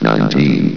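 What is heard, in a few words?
"nineteen", male; valve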